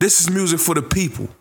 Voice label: Vocals

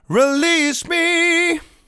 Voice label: "Release Me", vocal phrases; Ultimate Vocals

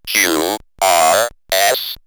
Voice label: Robot Q, R, S, robot vox; X-Static Goldmine

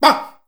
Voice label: "BAM", SHOUTS; Best Service Hyper Dance

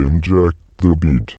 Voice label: Inject The Beat, Robotic Vox; Zero-G Club Techno

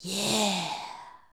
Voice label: "yeah", shouts; Best Service; Hyper Dance

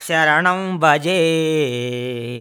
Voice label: MAN VX, Indian Traditions

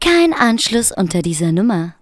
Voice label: german, SPOKEN; Techno Trance Essentials

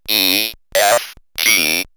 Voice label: Robot E, F, G, robot vox; X-Static Goldmine

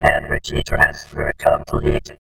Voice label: "energy transfer complete" D2, robotic vox; Zero-G Club Techno

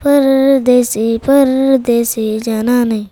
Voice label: CHILD VX, Indian Traditions

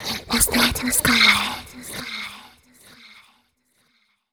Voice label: fem spo, Vocals; Mutekki Media; Diamond Vocals 2

